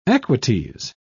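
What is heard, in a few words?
"equites", British English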